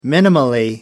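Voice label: "minimally", British English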